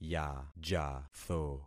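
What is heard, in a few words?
"yajatho", concatenated syllables; Affixing experiment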